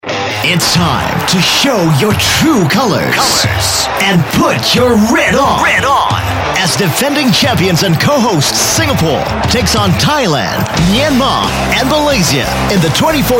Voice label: English Singaporean Male; AFF SUZUKI CUP